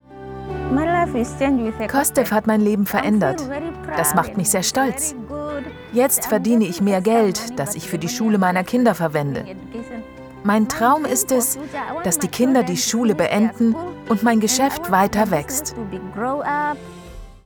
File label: Voice Over; ZDF SpendenGala2023; Namibia